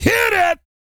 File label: COUNT, Vocal Planet, R&B & Dance, COUNTING; ILIO